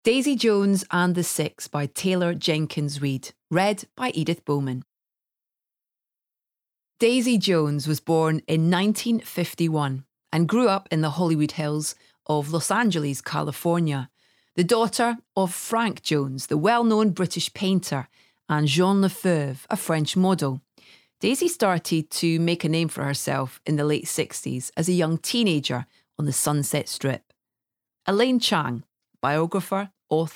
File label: Bookread; Daisy Jones